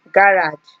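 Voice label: "garage", Nigerian Pidgin, female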